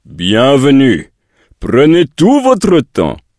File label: draenei, male; bienvenue prenez votre temps; PhoenixCraft-1.16.5; PhoenixNPCPack; minecraft